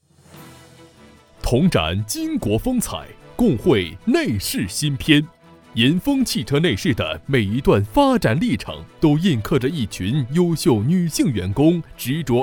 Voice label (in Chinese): 男 颁奖词